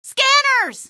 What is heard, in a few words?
scanners, female; Source Engine; video game; npc